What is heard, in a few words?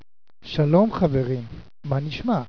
hebrew